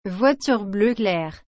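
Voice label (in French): "voiture bleu clair", French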